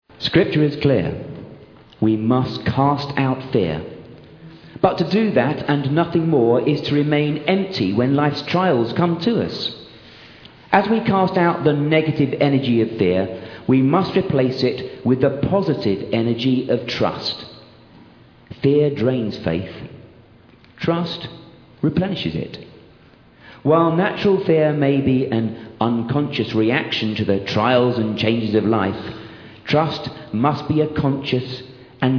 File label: Narration